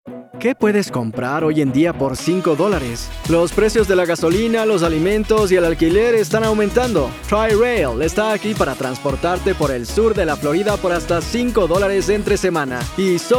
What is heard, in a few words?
Spanish; TriRail